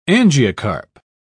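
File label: "angiocarp", British English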